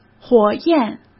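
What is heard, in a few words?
"火焰", Mandarin Chinese; hsk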